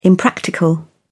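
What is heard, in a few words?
"impractical", British English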